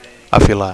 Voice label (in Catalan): "afilar", Catalan; to sharpen